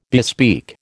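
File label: "bespeak", American English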